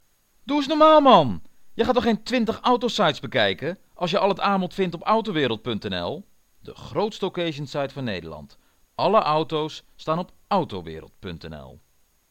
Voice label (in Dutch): Energieke promo